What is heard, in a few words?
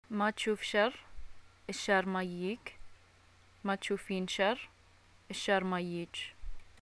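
Bahraini greeting get well soon, arabic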